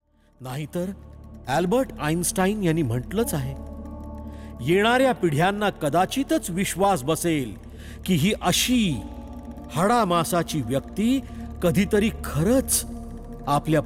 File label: Dialouge Dubbing